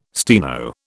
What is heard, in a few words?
"steno", British